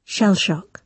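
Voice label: "shell shock", British English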